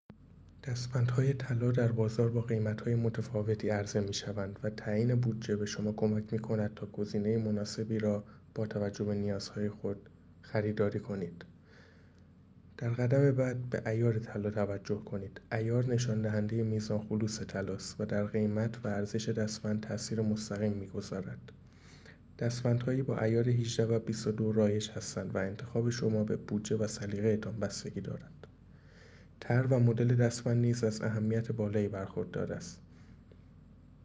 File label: voice msg